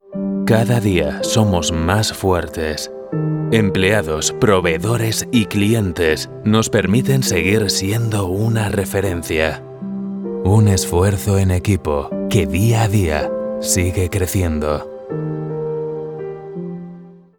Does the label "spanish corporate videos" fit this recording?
Yes